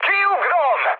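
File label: Hungarian bailout mood high, speech; War Thunder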